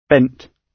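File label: "bent", British English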